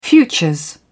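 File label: "futures", British English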